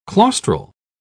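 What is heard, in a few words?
"claustral", English, uk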